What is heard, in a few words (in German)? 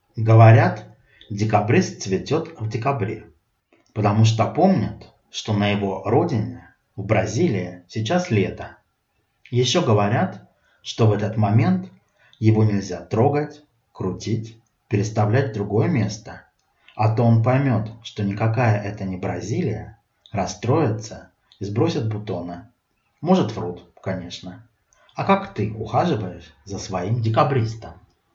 russisch